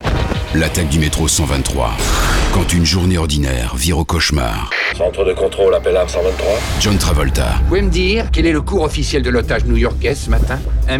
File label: movietrailer vo deep tough action; attaque metro 123